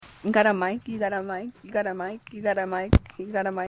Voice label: girl voice lol